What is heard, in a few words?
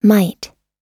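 "might", American English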